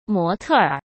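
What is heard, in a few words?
"模特儿", Mandarin Chinese; hsk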